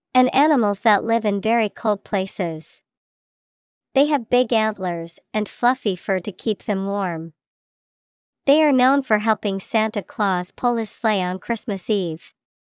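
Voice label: reindeer, English vocabulary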